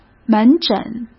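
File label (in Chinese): "门诊", Mandarin Chinese; chinese flashcards; hsk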